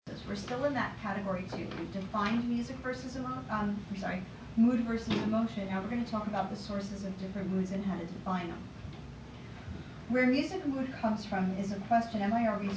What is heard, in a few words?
Read Out Loud, Female Professor's voice; Gallon Wing; Philosophy Class